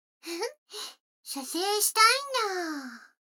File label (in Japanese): 女の子 台詞